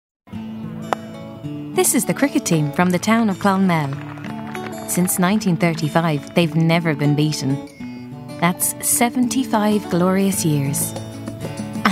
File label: accents